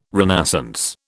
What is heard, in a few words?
"renascence", British